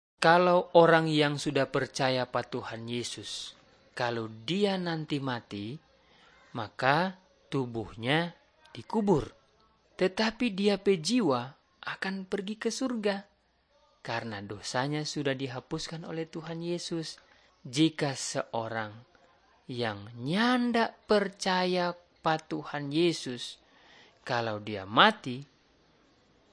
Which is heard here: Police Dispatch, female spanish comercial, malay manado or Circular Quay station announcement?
malay manado